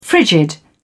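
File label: "frigid", British English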